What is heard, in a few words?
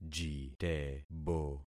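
"jitebo", concatenated syllables; Affixing experiment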